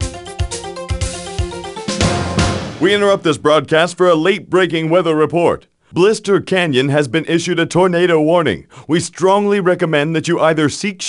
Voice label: News Tornado